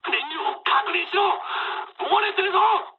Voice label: tank damaged mood med, speech jp; War Thunder